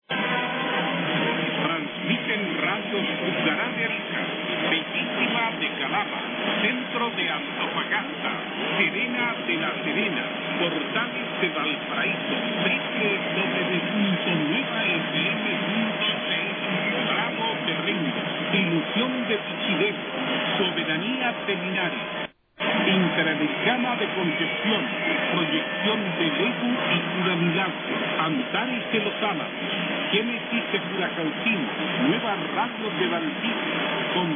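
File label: Station List; Chile 1180; bariloche; R Portales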